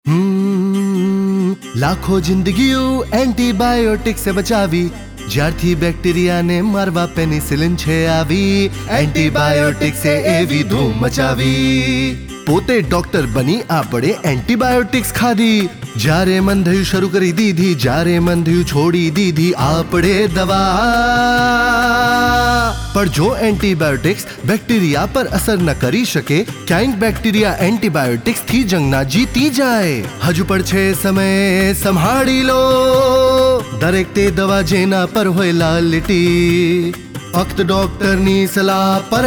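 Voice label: Antibiotic Jingle Gujarati; jingles in 19 languages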